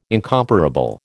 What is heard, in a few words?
"incomparable", American